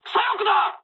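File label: left wing mood high, speech japanese; War Thunder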